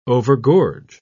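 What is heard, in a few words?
"overgorge", British English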